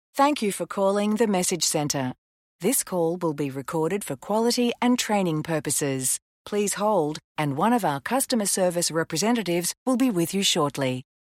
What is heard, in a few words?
thank you for calling natural female